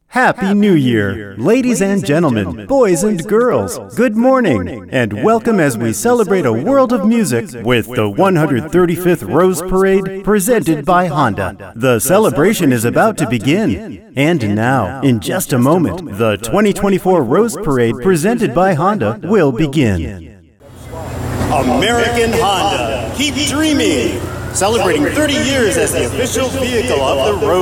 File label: Live Announce; 2024 Rose Parade